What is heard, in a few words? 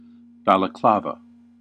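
"balaclava", US English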